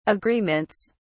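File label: "agreements", British English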